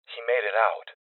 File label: Voices Cop Evacuated Male; rebel cops 2019 gamerip